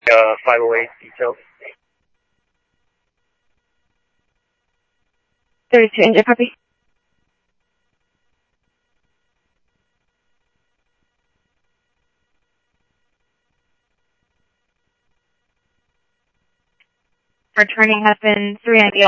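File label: Police Department, scanner audio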